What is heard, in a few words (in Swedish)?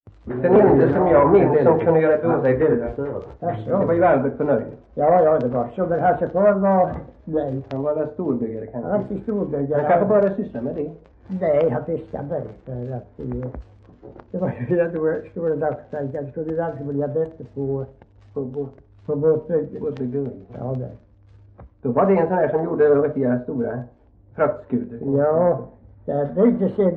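Värö Halland, dialekter svenska sagor; Folkminnen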